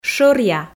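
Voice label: "шӧръя", Komi, female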